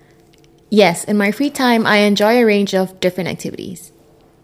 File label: female filler; interviewee